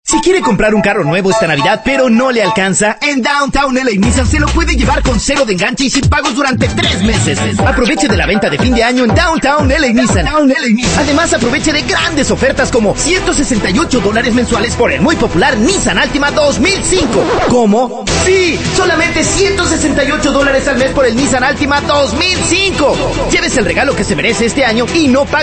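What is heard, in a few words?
Hard Sell Latin Spanish male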